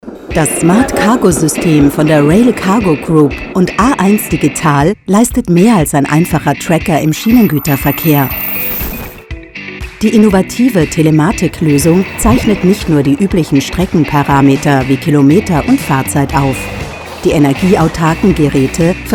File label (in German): Erklaervideo; A1 Digital SmartCargo; Demontration Video